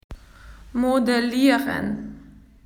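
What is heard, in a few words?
"modelling", Australian English; PictureDictionary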